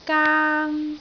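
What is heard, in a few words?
"gaang1", Cantonese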